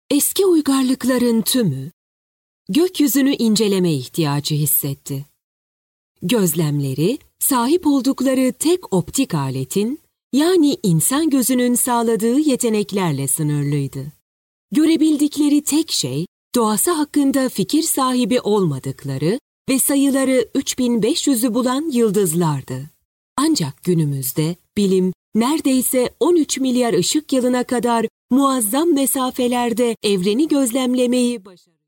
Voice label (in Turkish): belgesel